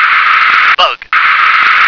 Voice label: "bug", English